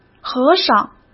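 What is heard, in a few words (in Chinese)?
"和尚", Mandarin Chinese; chinese flashcards; hsk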